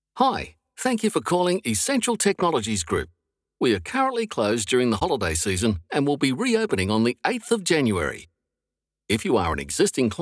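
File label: Australian Male Voice Talent Phone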